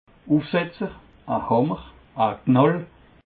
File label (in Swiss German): "ufsetzer", alsace